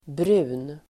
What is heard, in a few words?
"brun", Swedish